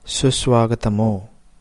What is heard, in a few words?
"welcome", Telugu; susvaagatam